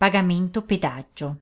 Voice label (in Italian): Charge, Italian, female